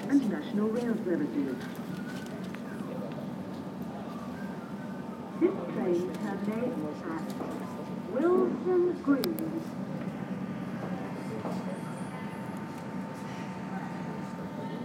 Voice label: amazingly cheerful announcer on the westbound jubilee line platform